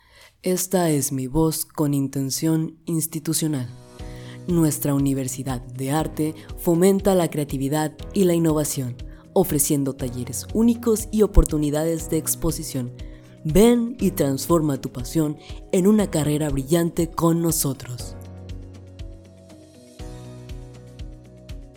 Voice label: Institucional Musicalizado, jingle

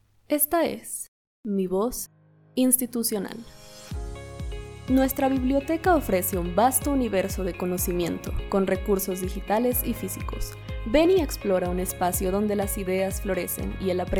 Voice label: Institucional Musicalizado; jingle

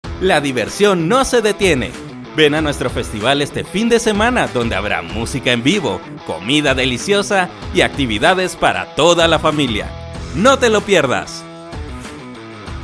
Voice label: Dinamica Musicalizado, locutor

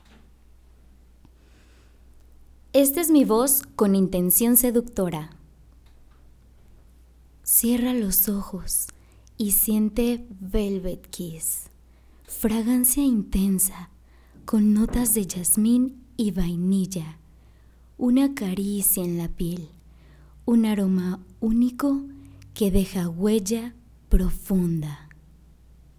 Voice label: Seductora, locutora

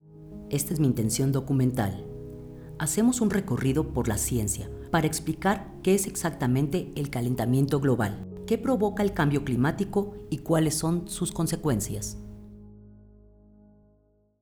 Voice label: Documental, locutora

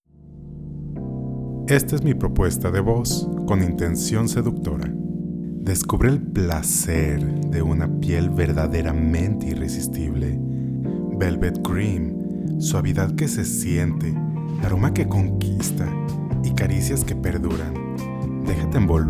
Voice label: Seductora Musicalizado, locutor

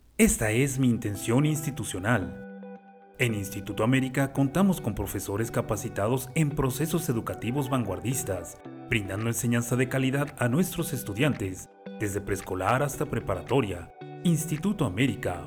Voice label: INSTITUCIONAL

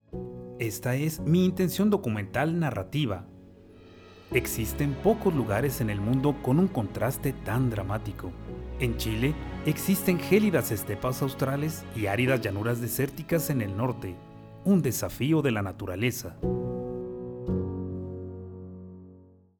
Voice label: documental, locutor

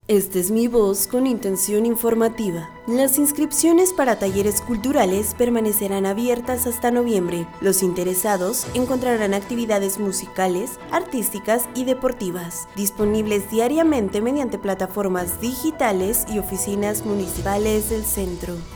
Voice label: Informativa Musicalizado

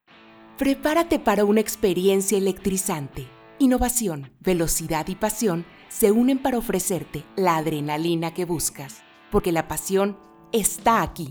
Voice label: Dinamica musicalizado, locutora

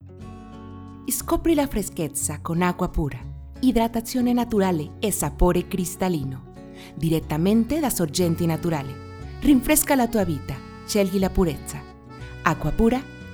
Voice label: Italiano musicalizado

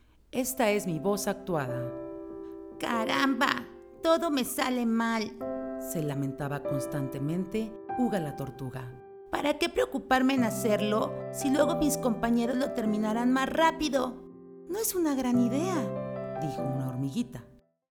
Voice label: ACTUADA, locutora

